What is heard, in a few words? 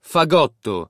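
"fagotto", Italian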